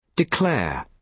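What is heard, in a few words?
"declare", British English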